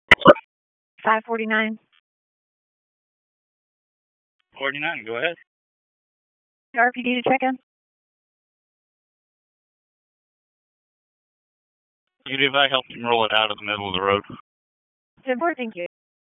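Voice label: Sheriff's Office Dispatch; WSO DISP 1; wake; p25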